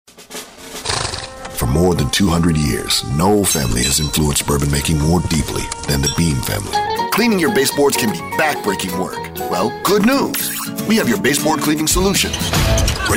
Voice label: Commerical

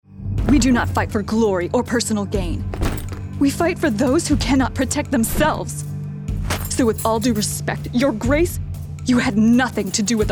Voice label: Character

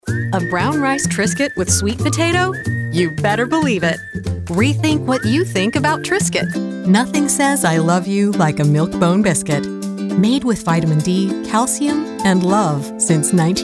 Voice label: commercial

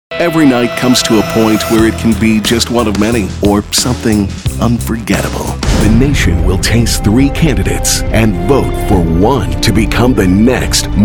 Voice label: Commercials

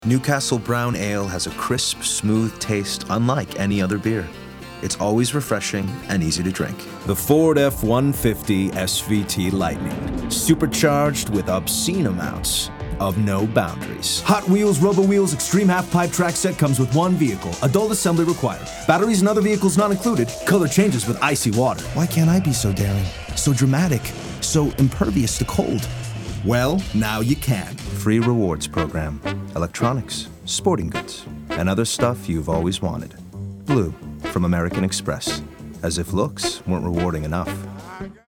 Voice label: voice over commercial

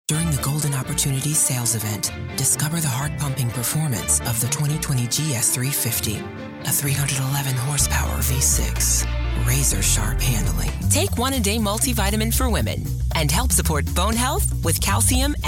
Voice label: commercial

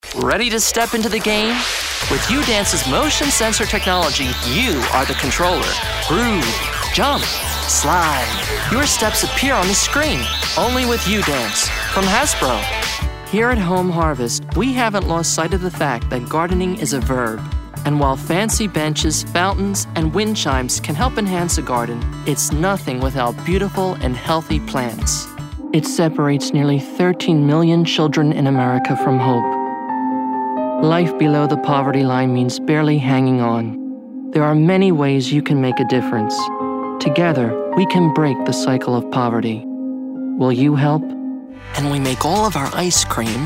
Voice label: commercial

